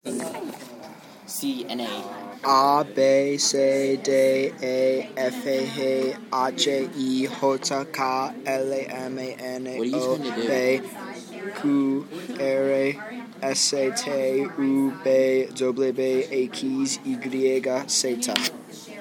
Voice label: spanish alphabet